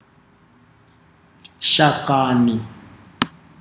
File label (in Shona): leaf botanics, Ndau